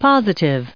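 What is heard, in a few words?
"positively", us English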